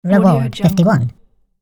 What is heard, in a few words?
cartoon voice says reward